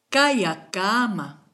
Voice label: goodbye, kichwa